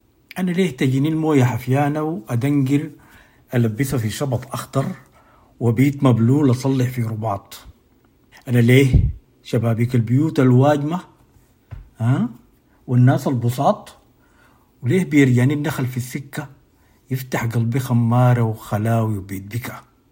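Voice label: Arabic Sudanese, general; GoLocalise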